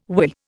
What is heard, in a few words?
"whirr", Indian